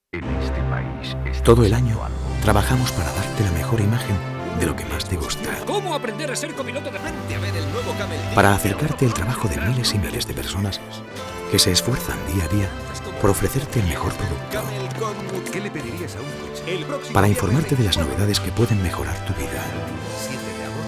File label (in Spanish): PUBLICIDAD FELIZ NAVIDAD